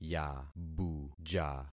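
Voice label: "yabuja", concatenated syllables; Affixing experiment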